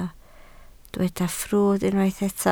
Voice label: "ffrwd", Welsh

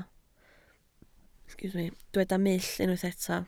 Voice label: "mull", Welsh

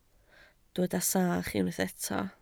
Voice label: "sach", Welsh

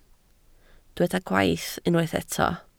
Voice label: "gwaith", Welsh

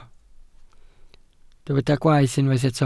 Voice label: "gwaeth", Welsh

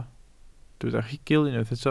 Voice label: "rhugl", Welsh

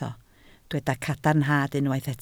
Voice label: "cadarnhad" Welsh

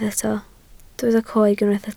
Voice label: "coeg", Welsh

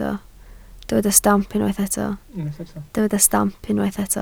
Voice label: "stamp", Welsh

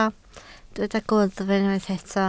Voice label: "gwddf", Welsh

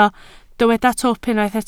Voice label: "twp", Welsh

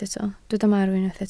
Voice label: "marw", Welsh

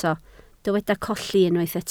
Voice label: "colli", Welsh

